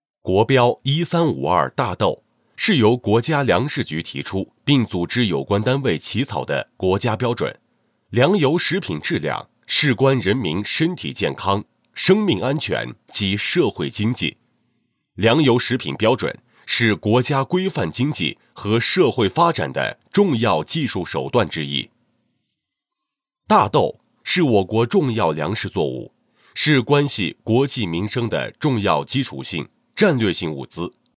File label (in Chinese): 男 解说 大豆筛查标准